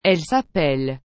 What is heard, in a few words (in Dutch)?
"elle s'appelle", frans; appeler